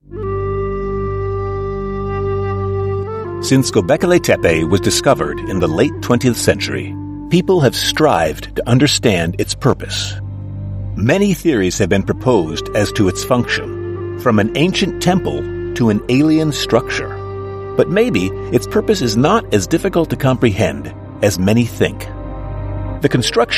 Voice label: american male voice elearning